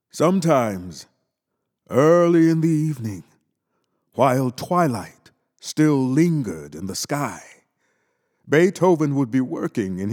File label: narration